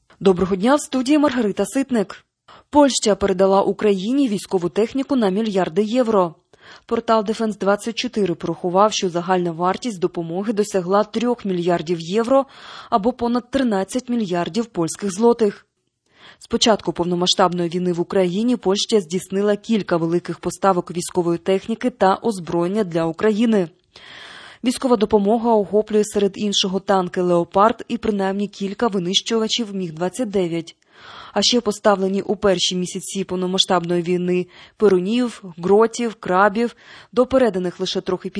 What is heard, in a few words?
Ukrainian Morning News